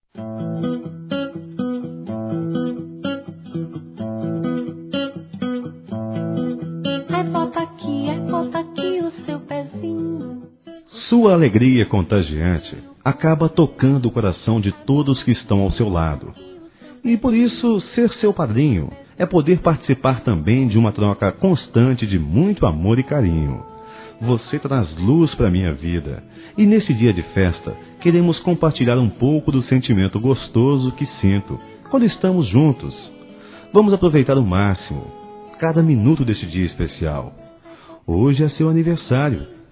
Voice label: Afilhada Criança Masculino; Telemensagens 2017; Aniversários; Aniversário Infantil

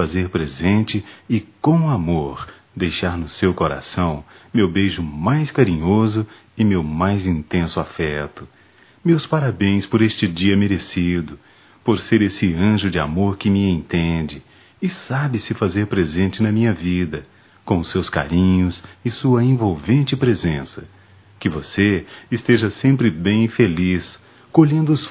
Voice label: Dia da Mulher Romantico, Só Voz, masc; Telemensagens; Telemensagens 2017

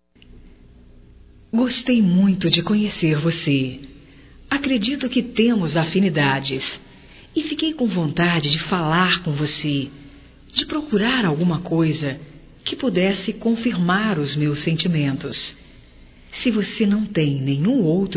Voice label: CONVITE PARA SAIR, Românticas fem

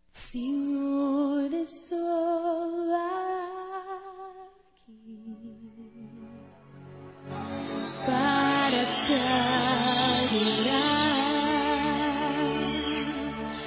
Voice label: Aniversário Neutro Evangelico MASCULINO

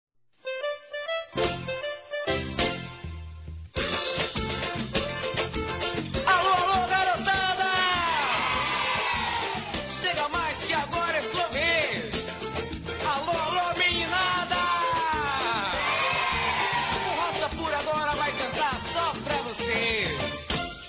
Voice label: NEUTRO, Aniversário Infantil; Telemensagens

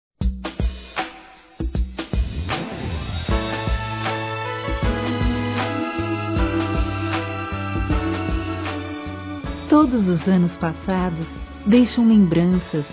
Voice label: Aniversário Sobrinha Feminino

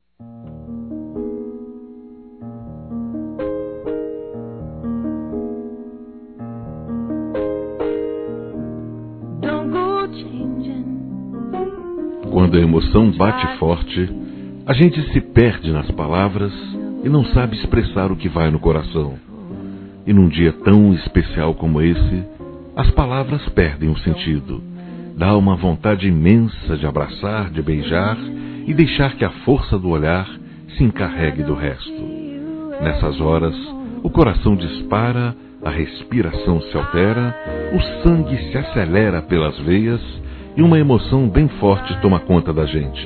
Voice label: Namorado M; Aniversários; ANIVERSARIO romantico; masc

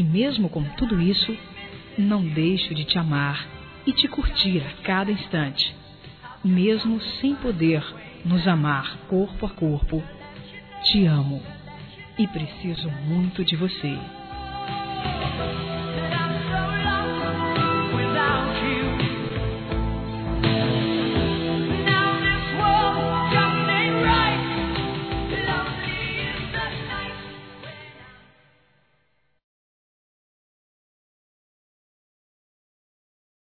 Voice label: AMOR NÃO CORRESPONDIDO, Telemensagens, Fem

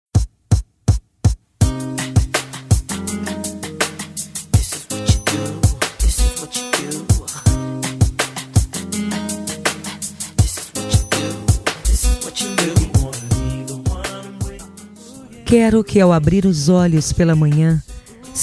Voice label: DIA DOS NAMORADOS F, fem; Telemensagens 2017

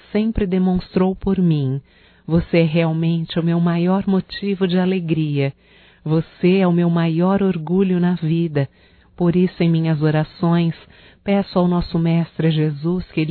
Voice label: AGRADECIMENTO PARA FILHA, Só Voz, fem; Telemensagens; AGRADECIMENTOS

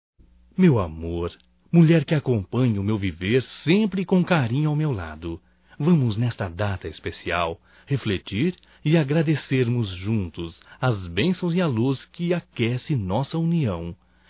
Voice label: NATAL ANO NOVO ROMANTICO, masc; Telemensagens 2017

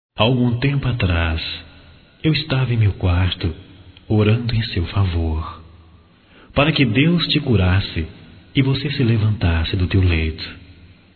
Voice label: Masculino, Só Voz; Telemensagens; Restabelecimento; Telemensagens 2017

